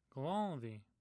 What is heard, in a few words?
"gthonthin", Omaha